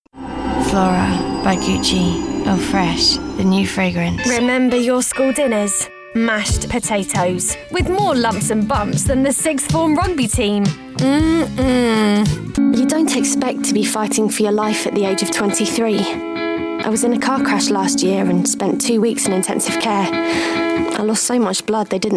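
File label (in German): EngUK Werbung Variabel, female, British English, advert, variable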